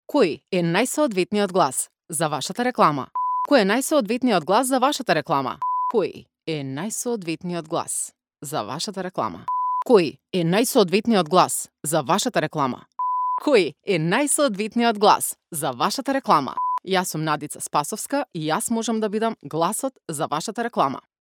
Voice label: Commercial